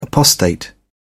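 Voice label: "apostate", British English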